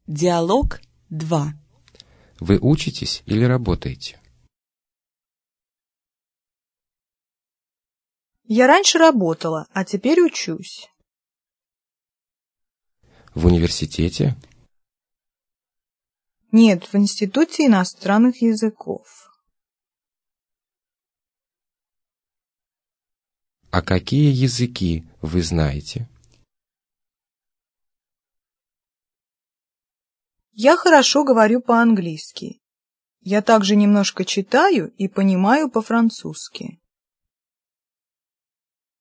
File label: dialog, russian